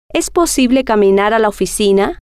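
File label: es Posible Caminar Officina, Spanish, female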